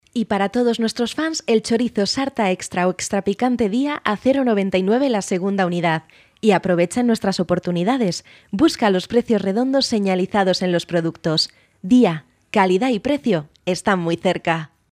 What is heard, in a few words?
locutora spanish voiceover